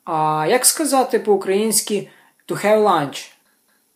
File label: dialogue, Ukrainian